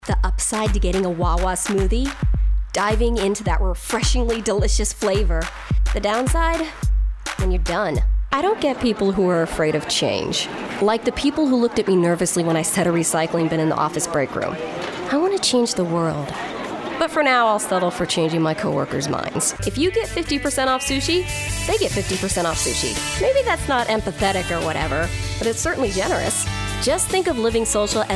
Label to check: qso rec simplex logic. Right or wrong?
Wrong